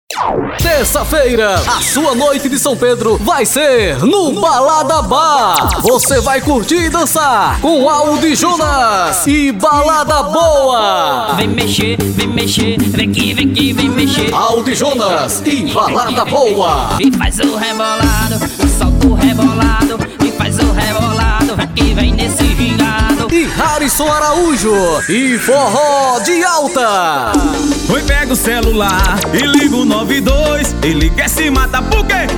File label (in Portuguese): locutor chamada; noite de sao pedro no balada bar